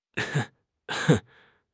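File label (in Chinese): "和解", Mandarin Chinese; HSK6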